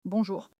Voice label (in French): "bonjour", French